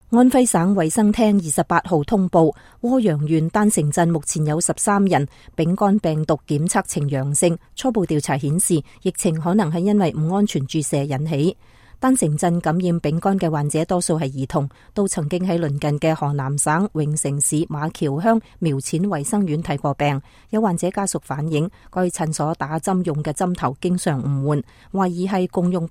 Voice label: cantonese